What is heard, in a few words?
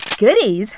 "COLLECT", Smooth Babe, Speech; Worms Armageddon